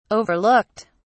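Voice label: "overlooked", British English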